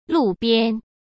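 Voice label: "路边", Mandarin Chinese; chinese-flashcards; hsk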